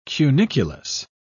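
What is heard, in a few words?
"cuniculus", British English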